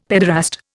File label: "paederast", Indian; Dictionary